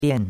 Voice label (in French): "bian4", chinois; Cours-de-Chinois